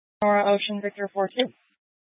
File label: Police Department Dispatch, scanner